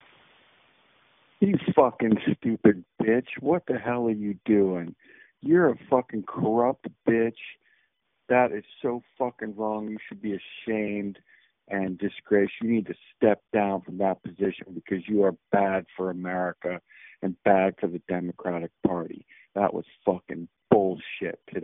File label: voicemail